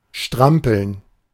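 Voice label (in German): "strampeln", German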